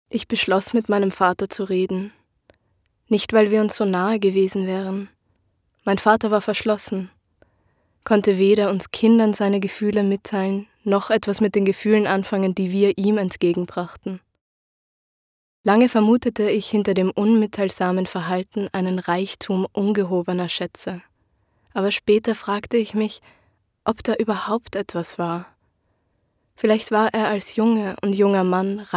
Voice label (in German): Hörprobe Roman; Hörli